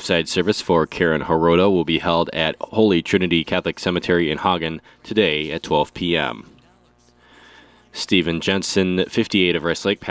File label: Funeral Announcements